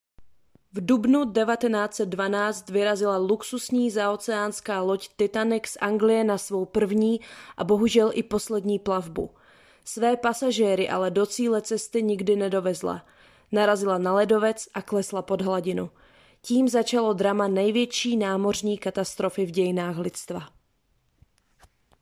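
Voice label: voice Sample Czech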